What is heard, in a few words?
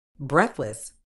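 "breathless", British English; ˈbreθ.ləs; BRETH-luhss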